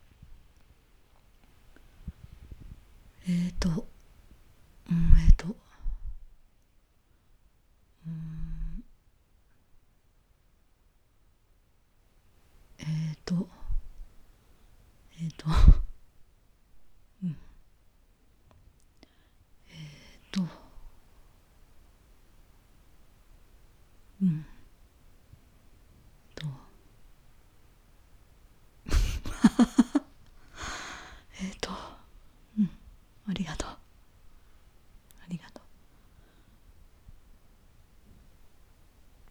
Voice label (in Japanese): 少年ボイス はずかしそうに「ありがとう」